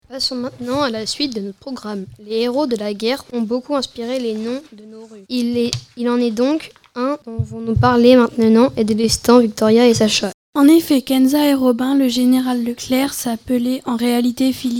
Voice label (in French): webradio; musee de la liberation; General Leclerc